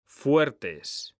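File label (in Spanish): "fuertes", Spanish; mekanta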